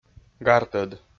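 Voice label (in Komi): "гартӧд", Komi, male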